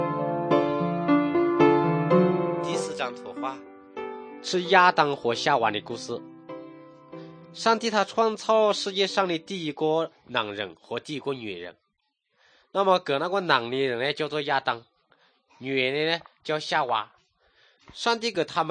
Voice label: good news shan, drama

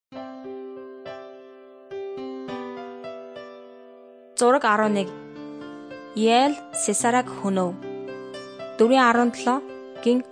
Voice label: mongolian

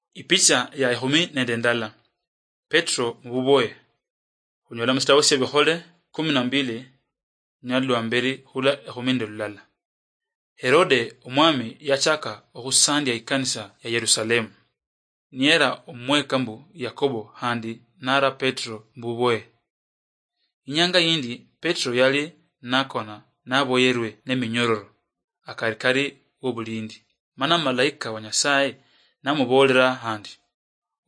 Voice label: acts of the holy spirit luyia marachi, drama

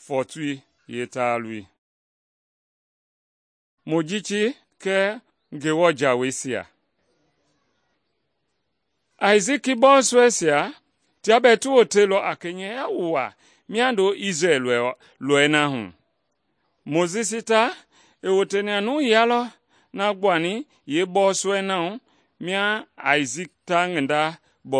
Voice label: mende bsl nt drama; good news mende kpa

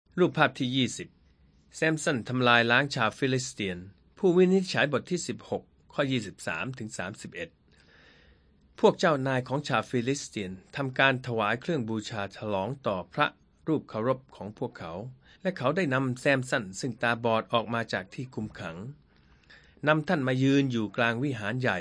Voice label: thai central

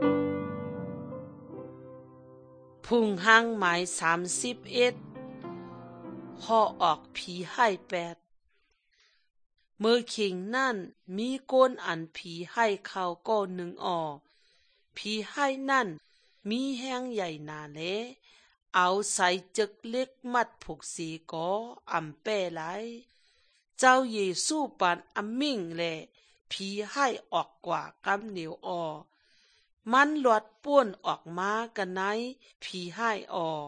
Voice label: good news shan eastern, drama

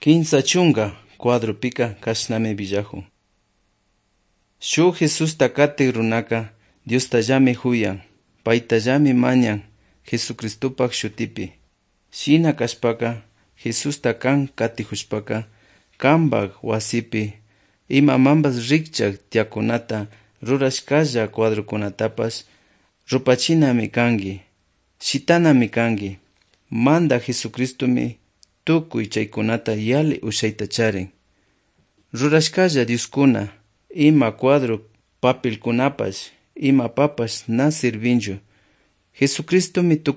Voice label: good news quichua otovalo

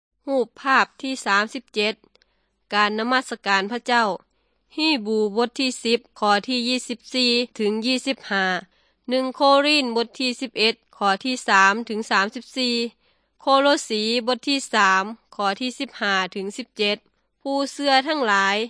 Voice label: good news thai northeastern

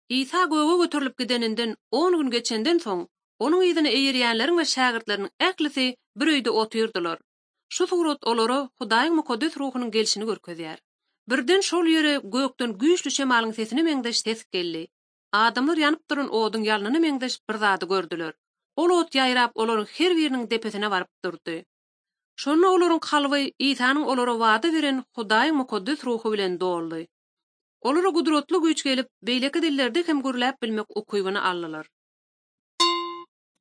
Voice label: turkmen, bible translation drama; ot drama; turkmen institute for bible translation; acts of the holy spirit

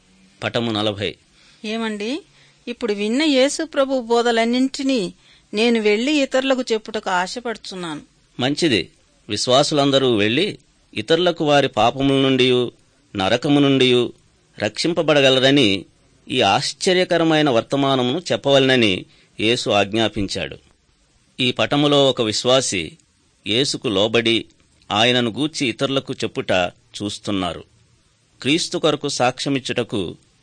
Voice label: good news telugu

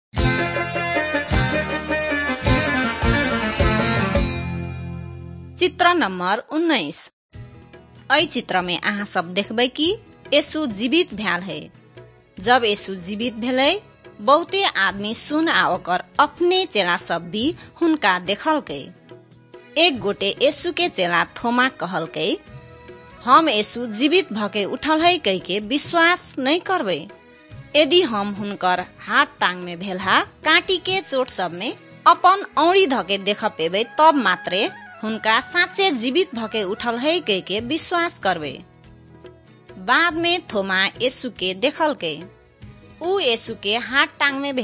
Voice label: maithili thetiya

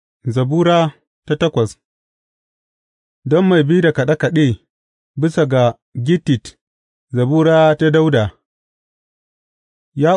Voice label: hausa bible drama, psalms; hausa bsn nt drama

